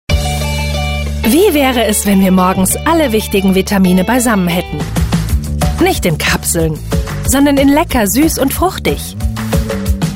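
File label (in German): Werbung fröhlich; frisch, offensiv; Froosie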